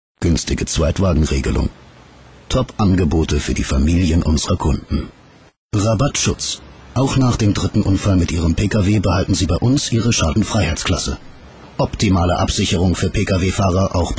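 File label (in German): werbung, männer; Köln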